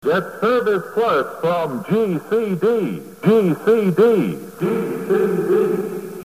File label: commercial; WPLA